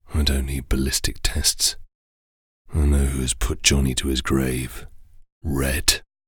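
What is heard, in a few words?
film noir voice over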